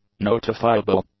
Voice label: "notifiable", english; adjectives

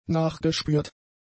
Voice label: "nachgespürt", German; adjectives

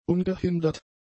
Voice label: "ungehindert", German; adjectives

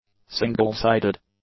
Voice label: "single-sided", English; adjectives

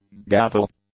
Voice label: "gavel", English; nouns

